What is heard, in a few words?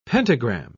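"pentagram", English, British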